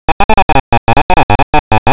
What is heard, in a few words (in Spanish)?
Castellano masculino sin estudios superiores; Tortosa